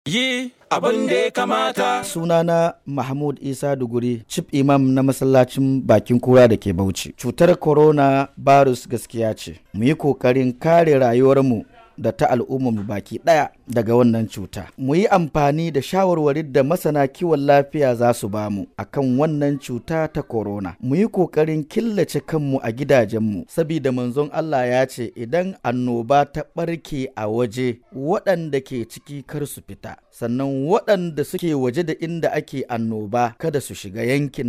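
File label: BAUCHI MALE ISLAMIC RELIGIOUS LEADER Hausa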